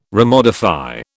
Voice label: "remodify", British